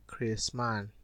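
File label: "craseman", Nigerian Pidgin, male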